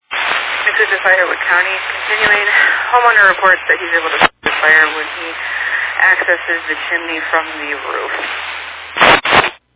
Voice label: Fire Dispatch